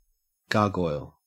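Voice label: "gargoyle", Australian English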